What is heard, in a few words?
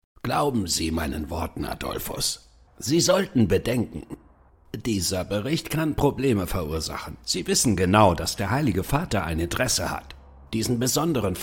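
German, male, conversational; voice over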